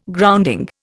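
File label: "grounding", Indian English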